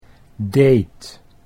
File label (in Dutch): "deed", Dutch